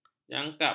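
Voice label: "jangkap", Malay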